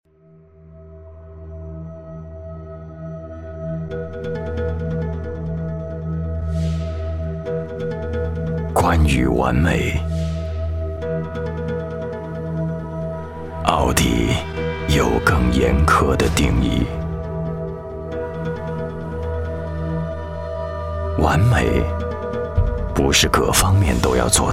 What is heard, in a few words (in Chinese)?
男 汽车广告, 国语男声配音